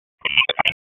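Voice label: Raleigh Police Downtown District Tactical, p25; Wake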